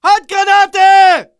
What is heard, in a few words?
ger grenade in, german